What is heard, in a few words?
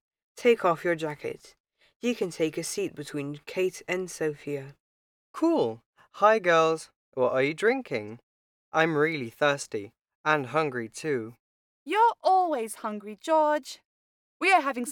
Listen and read the conversation Justins birthday party; Unit 6 Listening